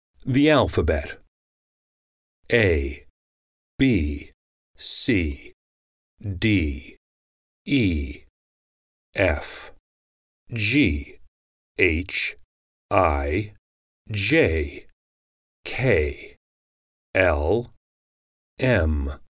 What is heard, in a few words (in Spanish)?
pronunciacion del alfabeto en ingles